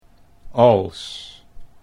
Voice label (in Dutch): "als", Dutch